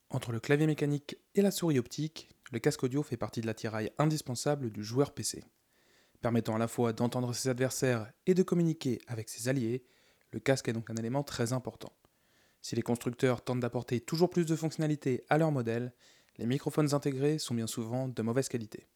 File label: bass cut; logitech g yeti studio